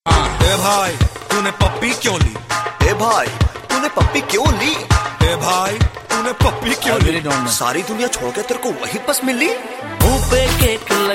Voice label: hindi comedy ringtone; a bhai tune pappie kyun lee aye bhai out of control